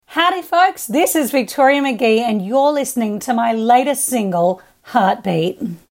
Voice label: radio intro; heartbeat